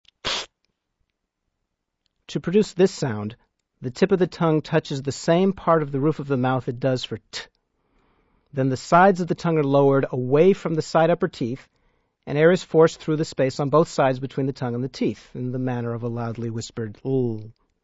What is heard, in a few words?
Klingon sounds tlh, Letter tlh; Note:Three letter letter